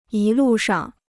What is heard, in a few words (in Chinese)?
"一路上", Chinese